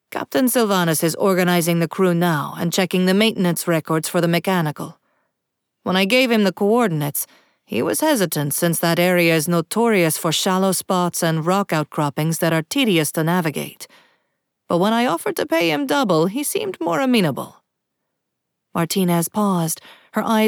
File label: South American Spanish Accent Sample; audiobook